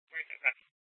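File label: Police Department North Dispatch, scanner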